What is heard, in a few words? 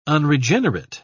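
"unregenerate", British English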